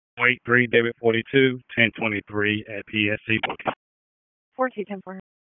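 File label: Dispatch, p25; wake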